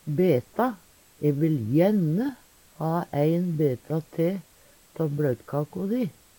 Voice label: "beta", Numedalsmål; Substantiv hankjønn

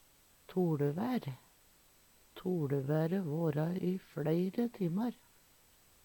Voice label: tolevaer, Numedalsmål; Substantiv inkjekjønn; Vêr og føre

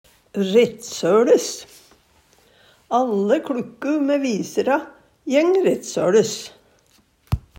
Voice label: "rettsøLes", Numedalsmål; Alle kLukku med visara jeng rettsøLes.; Adverb; gå same vegen som sola

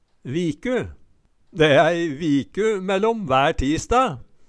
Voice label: viku, Numedalsmål; De e æi viku møllom vær tisda

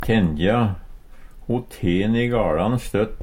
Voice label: tenja, Numedalsmål; Verb; Ho ten i gaLan støtt.

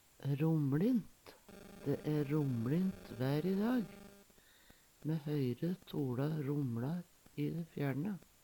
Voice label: romlint, Numedalsmål; Være æ romLint i dag. Me høyre toLa romlar i da fjærne.; Adverb; Veggli

